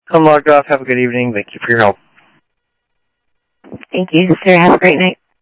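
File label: Sheriff Lake Patrol, scanner audio trunk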